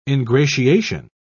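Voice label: "ingratiation", British English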